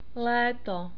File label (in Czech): "leto", Czech; long vowels